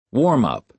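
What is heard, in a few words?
"warm-up", American English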